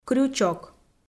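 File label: "крючок", Komi, female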